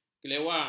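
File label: "kelewang", Malay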